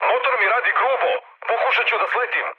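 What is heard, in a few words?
Serbian damaged engine mood high, speech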